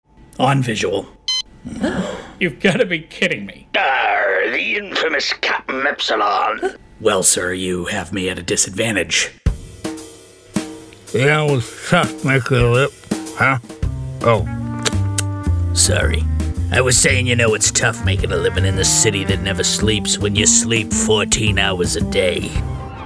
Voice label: Animation and Character